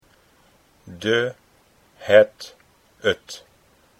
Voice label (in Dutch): "de het", Dutch